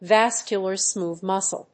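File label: "Vascular Smooth Muscle", English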